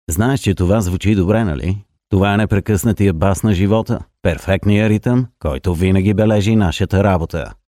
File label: male Bulgarian smooth, recited, commercial; 35-75